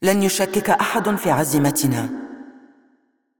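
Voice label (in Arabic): Arabic, assist; ar AE; VO; Karma; bloom minigame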